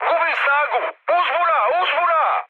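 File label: Serbian damaged engine mood high, speech; War Thunder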